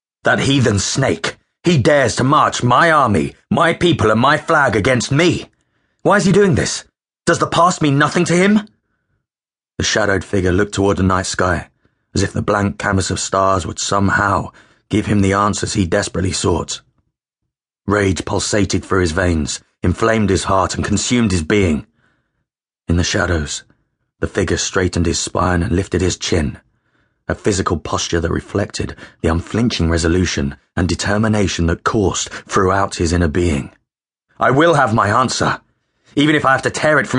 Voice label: audiobook

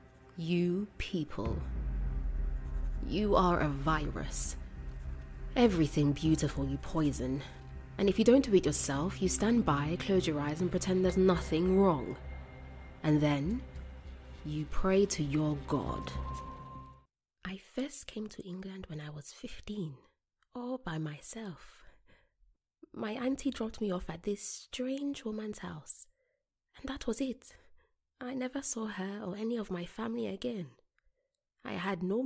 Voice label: Drama Reel

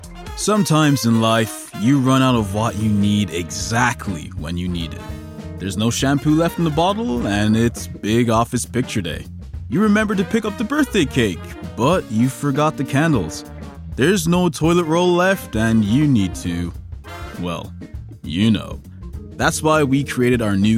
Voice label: Commercial

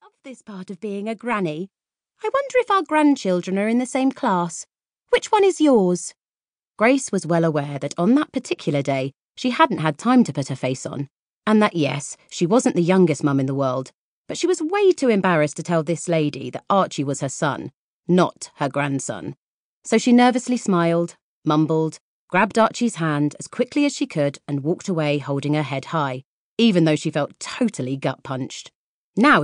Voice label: audiobook

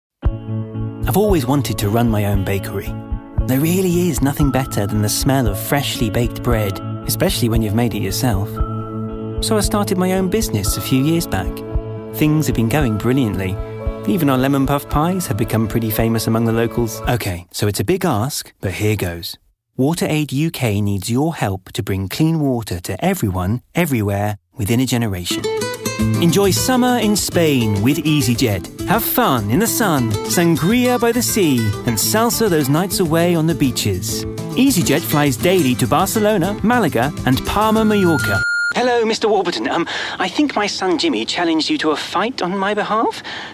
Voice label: Commercial

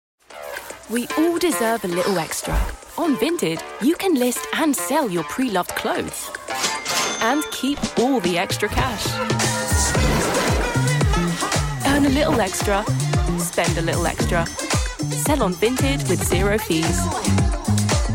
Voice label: Commercial

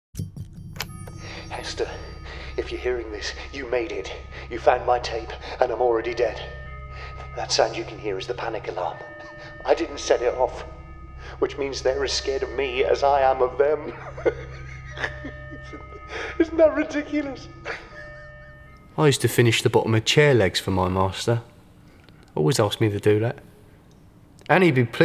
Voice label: Acting Voice

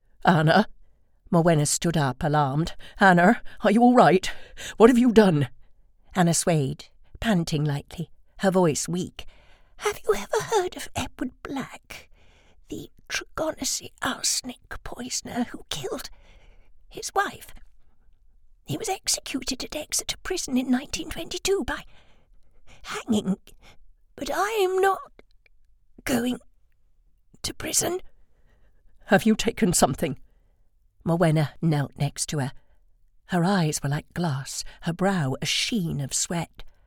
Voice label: audiobook thrillers

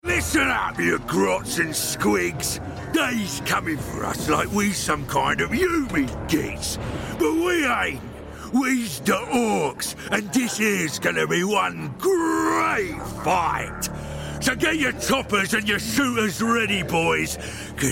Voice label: Orc; voice actor

